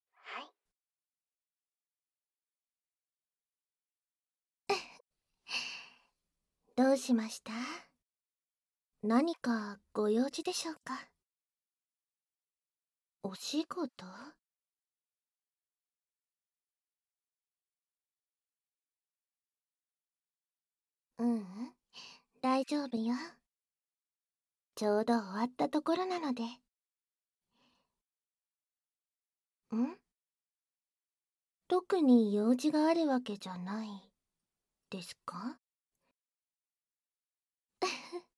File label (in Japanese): シスターは甘やかし上手【ささやき背中なでなで】; 囁き強化編集 効果音なし